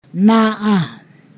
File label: "this short", Ponosakan